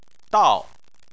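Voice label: "dao" fourth tone, Chinese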